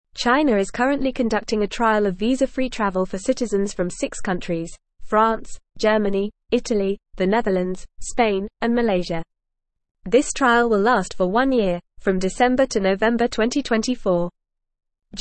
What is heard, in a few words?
English Advanced FAST Reading; English Newsroom; China Trials Visa Free Travel for Six Countries